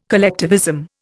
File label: "collectivism", Indian